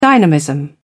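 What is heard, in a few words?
"dynamism", British English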